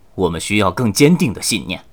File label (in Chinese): 失败, 年轻的修士; ycrpg